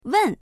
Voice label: "wen4", voices

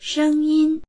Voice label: "sheng1yin1", voices

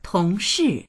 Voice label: "tong2shi4", voices; tóngshì; 同事; 同僚

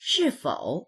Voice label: "shi4fou3", voices

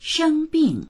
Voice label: "sheng1bing4", voices

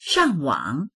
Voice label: "shang4wang3", voices; 上网; インターネットに接続する; dictionary

